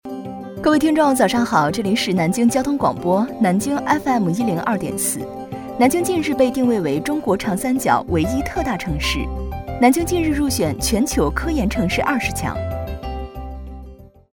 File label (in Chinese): 女 广播播报, putonghua